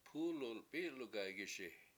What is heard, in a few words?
"pU7lu7 p7I7lugaygiSi", washo; car turns over